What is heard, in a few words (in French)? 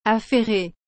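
"affairee", French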